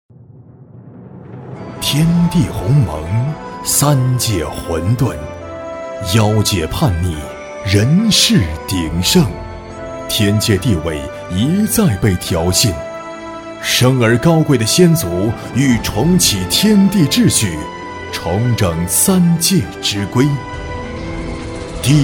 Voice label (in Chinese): 男 游戏旁白 恢弘大气; 大气恢弘 大气浑厚